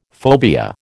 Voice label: "phobia", American

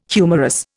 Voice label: "humorous", Indian

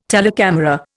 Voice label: "telecamera", Indian

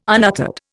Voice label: "unuttered", Indian

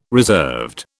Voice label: "reserved", British

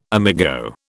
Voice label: "amigo", British

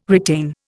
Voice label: "retain", Indian

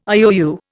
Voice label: "IOU", Indian

